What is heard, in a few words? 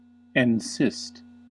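"encyst", US English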